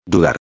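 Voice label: "dudar", Spanish; modos tempos verbais; subjuntivo; preterito perfecto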